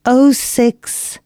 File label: announcements female